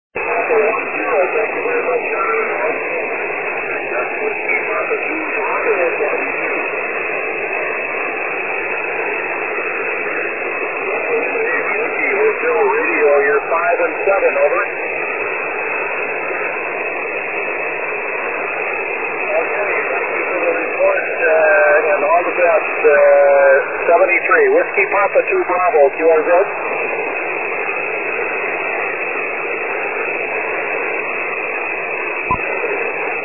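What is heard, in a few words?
6m single sideband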